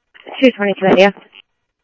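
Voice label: Phoenix police department, scanner; ThompsonPeakHigh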